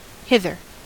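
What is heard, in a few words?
"hither", US English; pronunciations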